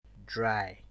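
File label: "dry", Nigerian Pidgin, male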